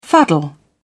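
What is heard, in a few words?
"fuddle", British English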